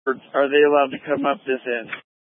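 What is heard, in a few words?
Incident Tactical, scanner audio recording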